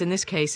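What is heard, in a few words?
"iN THis case", Standard Southern British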